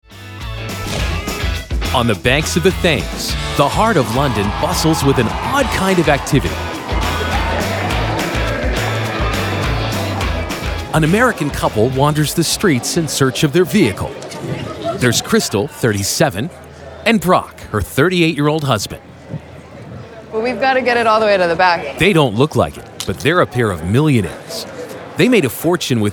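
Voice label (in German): Dokumentarfilm Erzahler Jugendfrei, English, male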